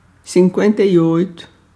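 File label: Portuguese numbers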